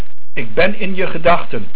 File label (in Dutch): "Gedachten", Dutch